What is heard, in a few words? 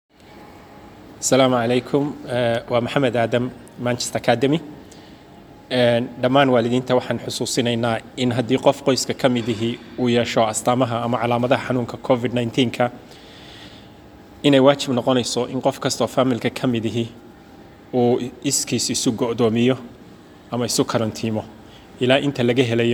Covid-19 Message in Somali